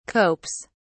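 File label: "copes", British English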